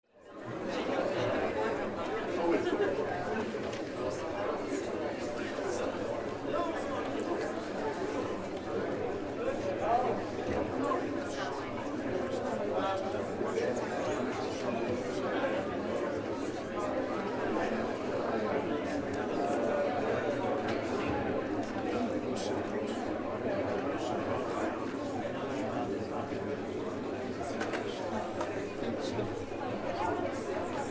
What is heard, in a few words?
Crowd conversation